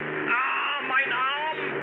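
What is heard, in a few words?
German damaged pilot mood high, speech; War Thunder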